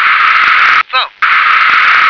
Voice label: "thumb", English